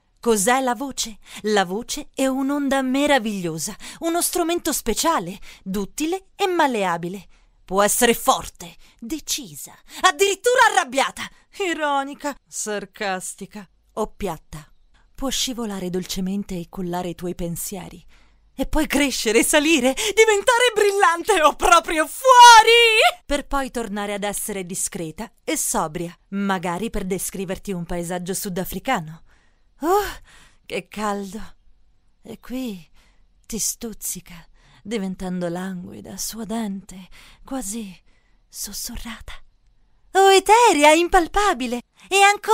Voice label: italian commercial